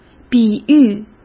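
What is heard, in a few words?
"比喻", Mandarin Chinese; hsk; chinese flashcards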